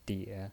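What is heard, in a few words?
"die" Mandarin Chinese, third tone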